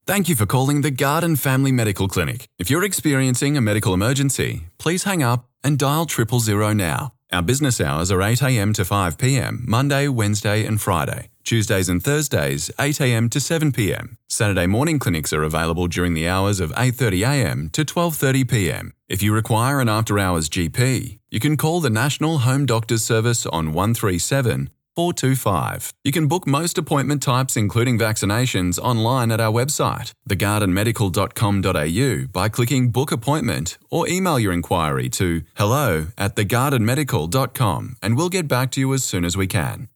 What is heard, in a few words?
Phone Welcome Greeting; The Garden Family Medical Clinic